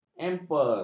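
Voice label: "emper", Malay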